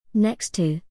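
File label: "next to", English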